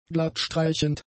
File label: "glattstreichend", German; adjectives